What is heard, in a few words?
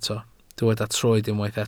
"troed", Welsh